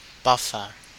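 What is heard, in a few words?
"buffer", Australian English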